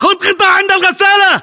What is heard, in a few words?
order cover washing machine, battle chatter, english